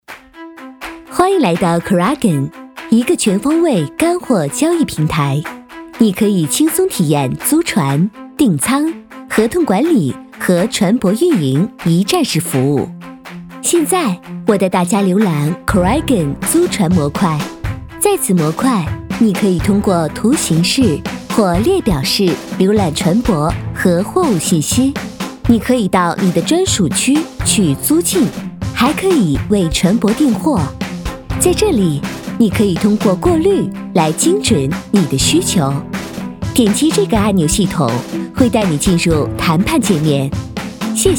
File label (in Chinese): 培训课件 APP, 女; 新加坡APPKraken